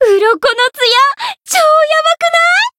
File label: voice jp; millecha